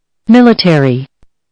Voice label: "military", listening